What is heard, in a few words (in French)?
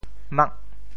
"mak", syllabes; 潮州; 白目眼赶人在跳罾